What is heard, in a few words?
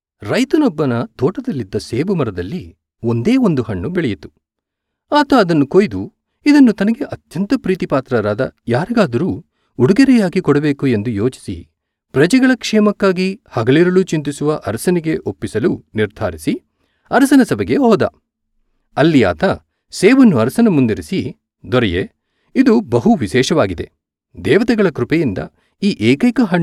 Kannada story